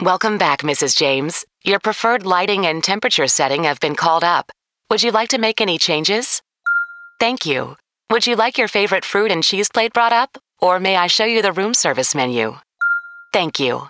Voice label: Voice Assistant